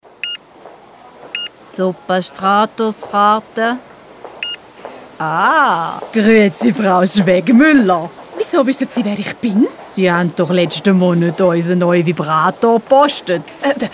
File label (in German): Radiowerbung gespielt